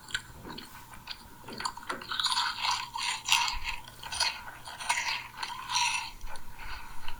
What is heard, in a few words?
narration